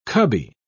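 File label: "cubby", British English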